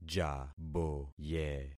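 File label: "jaboye", concatenated syllables; Affixing experiment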